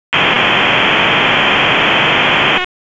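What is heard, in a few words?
Fire Department HQ Dispatch; RFD; wake; p25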